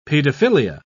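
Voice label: "pedophilia", English, British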